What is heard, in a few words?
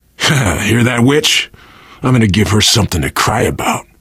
heard witch, survivor voice; source engine; left 4 dead 2